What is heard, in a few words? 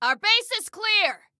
Base Clear, Female